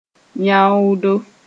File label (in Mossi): "yãoodo", moore